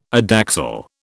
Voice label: "adaxial", British